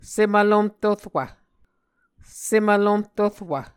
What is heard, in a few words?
"sem vlomh torwv", Muscogee